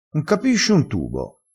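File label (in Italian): "tubo", dialetto crespinese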